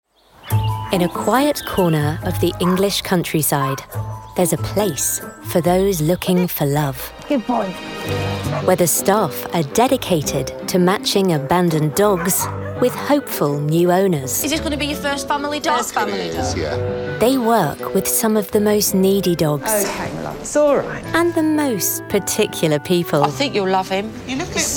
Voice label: narrative

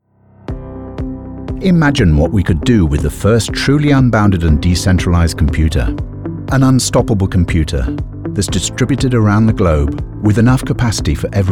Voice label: Narrative

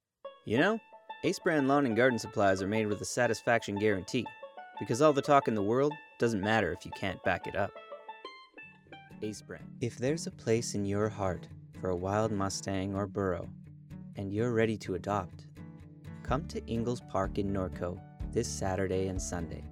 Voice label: Commercial Promo

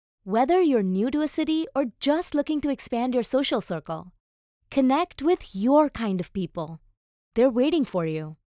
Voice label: Warm Confidence

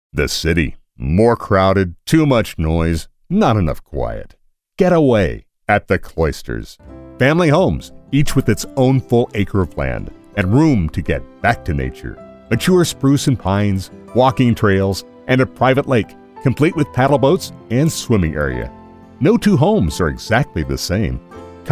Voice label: real estate commercial

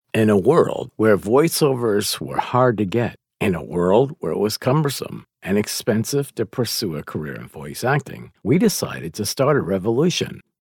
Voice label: INTERNET AD TECH

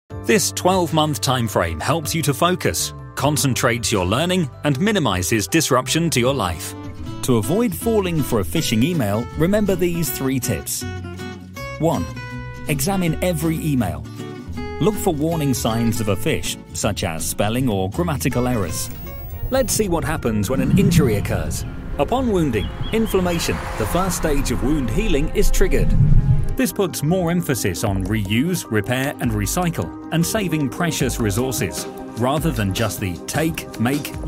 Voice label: Explainer

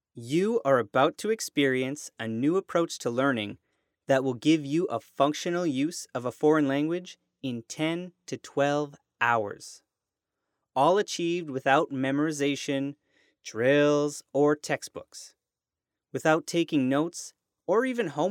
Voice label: ELearning

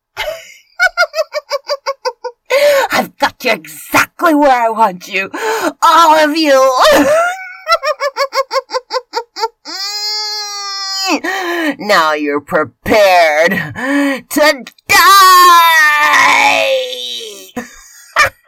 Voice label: Evil Witch

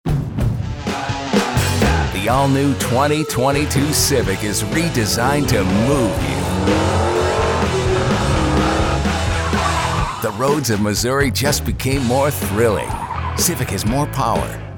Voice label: CAR SPOT; HONDA Dealers

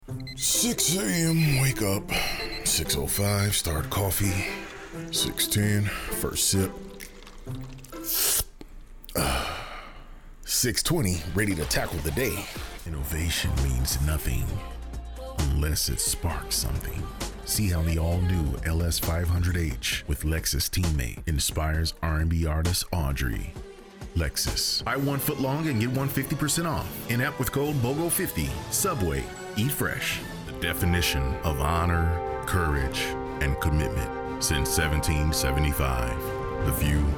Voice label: Commercial; Commercial Demo

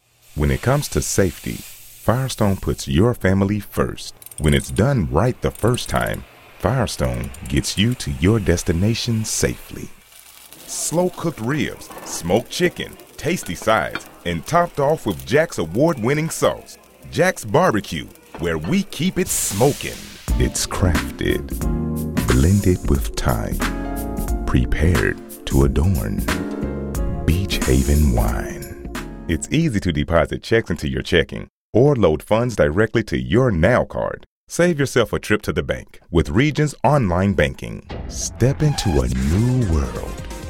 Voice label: Commercial

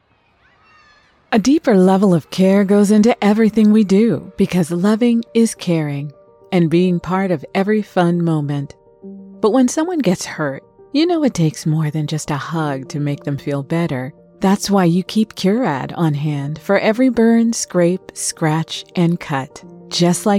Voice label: Commercial

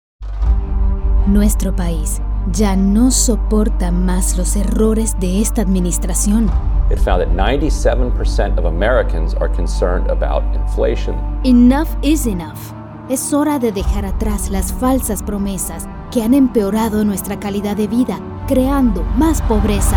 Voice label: Political Spanish English

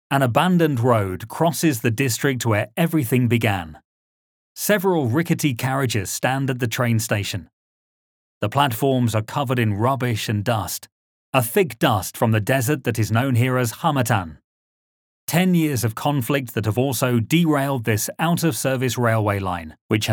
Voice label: Documentary

